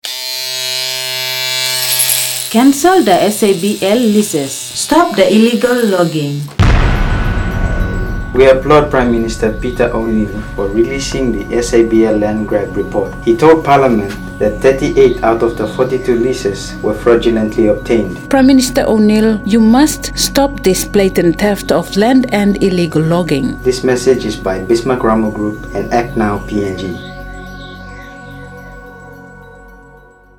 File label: Radio Advert